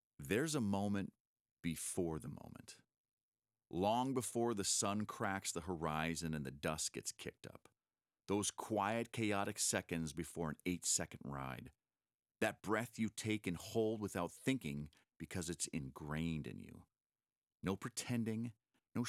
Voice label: Scratch Read; Ariat Mantra Video

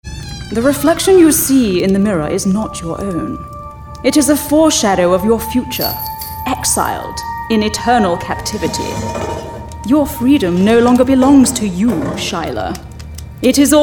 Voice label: Character VO

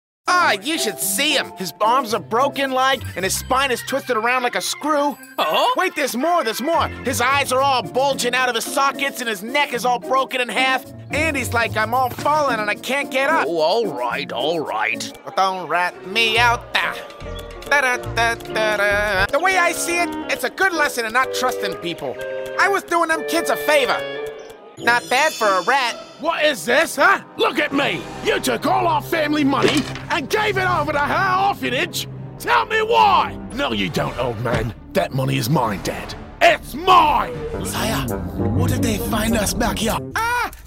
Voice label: Animation Character; Fantawild

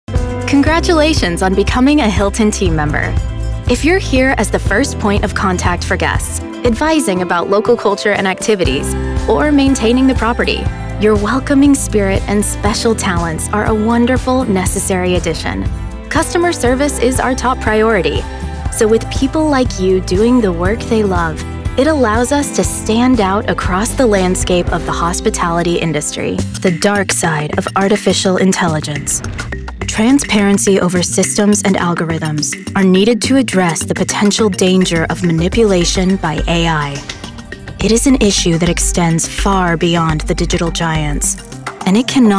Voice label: Corporate Narration

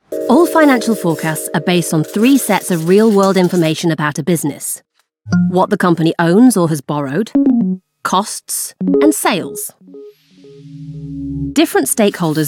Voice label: Financial Forecasting - E Learning; ZHAW

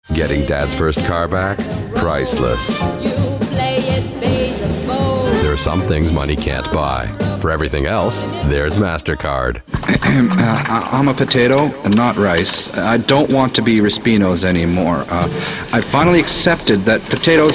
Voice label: commercials

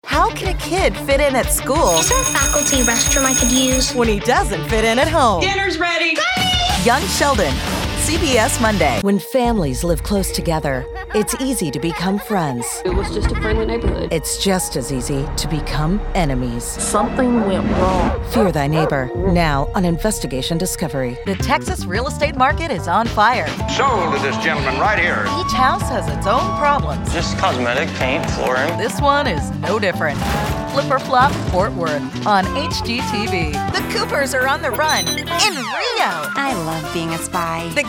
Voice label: American English Promo

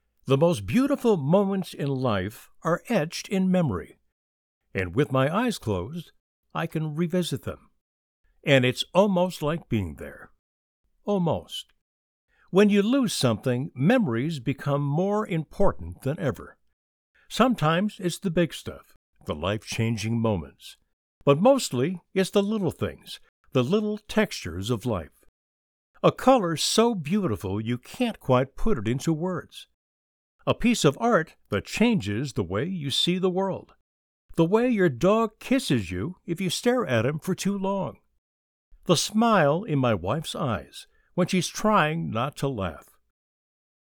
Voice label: Senior male inner monologue

